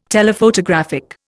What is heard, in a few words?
"Telephotographic", Indian